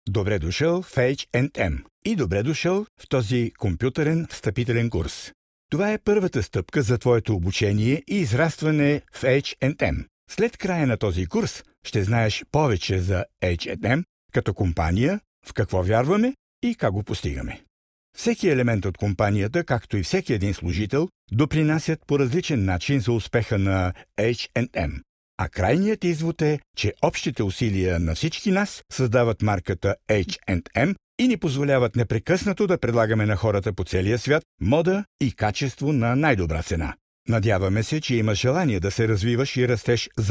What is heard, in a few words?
bulgarian m e-learning, voice; voice demos